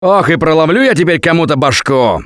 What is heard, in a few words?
Take Frying Pan, russian; Gambler